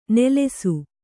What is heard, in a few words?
"ನಲಿಸು", Kannada